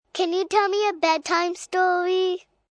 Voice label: Bedtime Story, Questions, 1 child; Talking